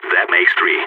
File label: three enemies left, balkan; vo